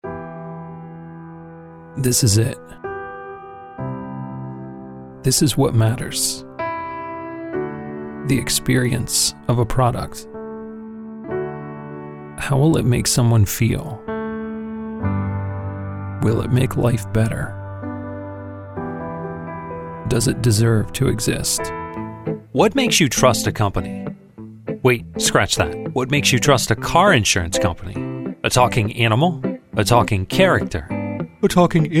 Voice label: Commercials Voice Over